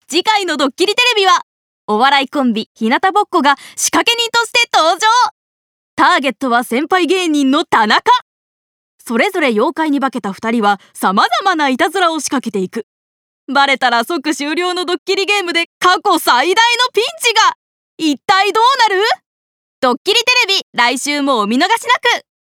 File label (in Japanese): 番宣ナレ